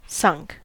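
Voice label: "sunk", US English; pronunciations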